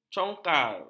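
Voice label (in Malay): "congkar", Malay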